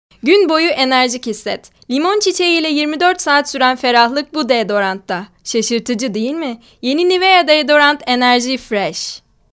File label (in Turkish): REKLAM